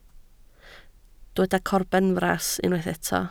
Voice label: "corbenfras", Welsh